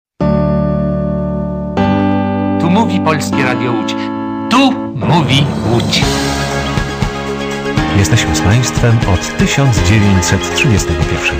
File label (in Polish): polskie radio lodz jingiel tu mowi lodz